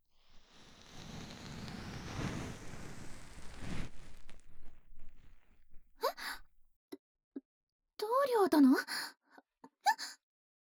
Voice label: 【左】こしょこしょ囁き(始), ASMR; 耳かき小分けトラック

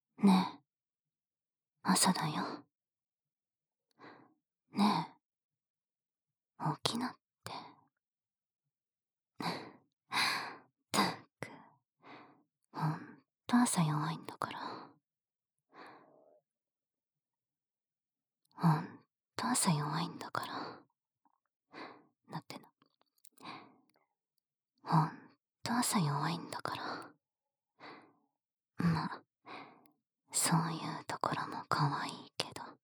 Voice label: 目覚ましアラーム音声; ルナのえっちな…目覚ましアラーム音声2種類♪

